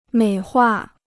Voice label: "美化", Chinese

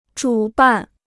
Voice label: "主办", Chinese

